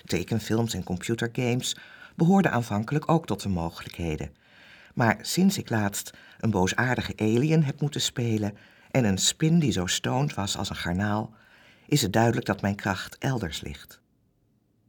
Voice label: voice over